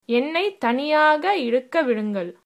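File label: leave me alone, Tamil, female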